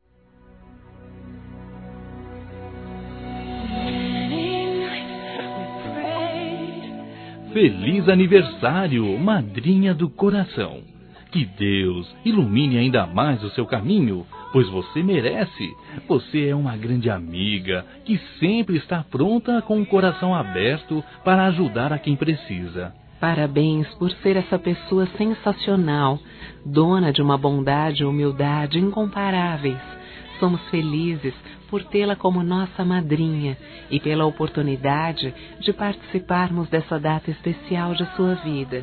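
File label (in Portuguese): Masculino, Telemensagens Aniversários; MADRINHA; Telemensagens 2017; Aniv Familiar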